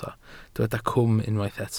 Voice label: "cwm", Welsh